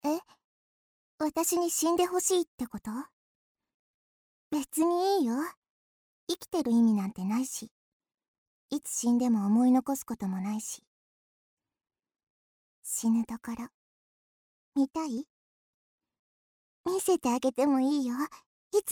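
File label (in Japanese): 女性 ロリ 元気; ヤンデレ1; アトリエピーチ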